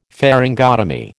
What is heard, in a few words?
"pharyngotomy", American